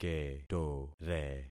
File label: "ketore", concat syllables; Affixing experiment